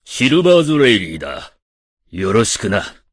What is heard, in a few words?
voice, dubbing